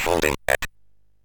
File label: MOS6581R3, Electronic Speech Systems